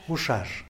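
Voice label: "guxax", Tlacochahuaya Zapotec; type of bird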